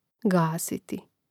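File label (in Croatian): "gasiti", Hrvatski; mreznik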